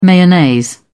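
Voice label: "mayonnaise", British English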